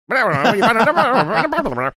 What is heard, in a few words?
imitating someone speaking at double speed